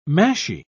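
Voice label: "mashie", British English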